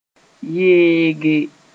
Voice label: "yeege", moore

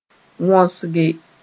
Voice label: "wõsge", Moore; moulder

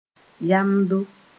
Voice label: "yamdo", moore

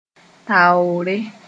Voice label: "taoore", moore

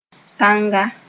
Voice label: "tãnga", moore

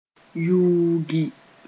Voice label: "yuugi", moore

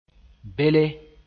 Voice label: "bele", moore

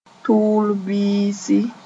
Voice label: "tʋʋl-biisi", moore; heat-rush

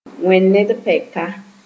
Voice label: "wẽ ned pɛka", moore

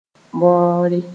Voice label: "bõore", moore

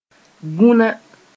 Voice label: "vũna", moore; flaccid

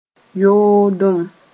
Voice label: "yoodem", moore; adultery

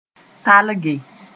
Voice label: "talge", moore

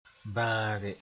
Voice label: "bãage", moore

